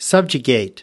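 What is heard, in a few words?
"subjugate", American English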